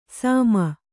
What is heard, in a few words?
"ಸಾಮ", kannada